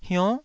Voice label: "hionn9", taiwanese syllable

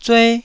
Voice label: "zue1", taiwanese

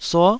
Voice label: "suo9", taiwanese Syllables

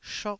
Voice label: "shok1", taiwanese Syllables

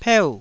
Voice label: "peu4", taiwanese

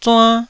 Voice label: "zuann1", taiwanese

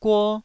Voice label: "guo1", taiwanese

